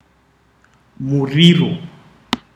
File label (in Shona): fire, Ndau